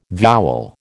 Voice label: "vowel", American